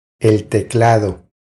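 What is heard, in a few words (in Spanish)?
"El teclado", Spanish; DELE B1 vocabulary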